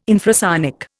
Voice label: "infrasonic", Indian